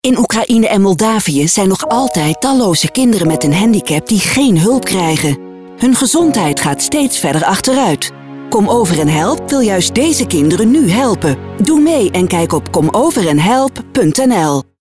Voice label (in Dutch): Radio; Kom Over En Help; Groot Nieuws Radio